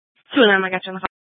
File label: Police Dispatch, scanner